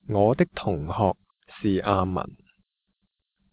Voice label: Cantonese